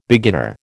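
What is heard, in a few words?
"beginner", American English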